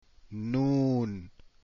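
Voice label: "noon", Arabic letters; deen path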